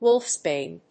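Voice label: "wolfsbane", English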